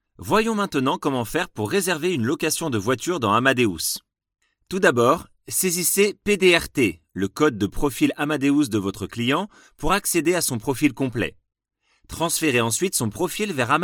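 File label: French, General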